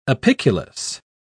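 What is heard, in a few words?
"apiculus", British English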